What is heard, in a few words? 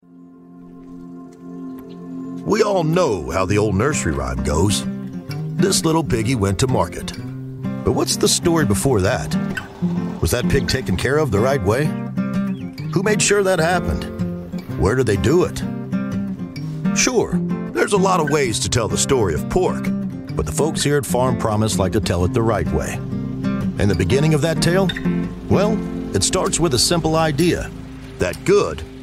Conversational male voice, Video Games, Video Game Narration And Character Voices; Video Game Narration And Character Voices, Combining Story-Driven Narration; Farm Promise promo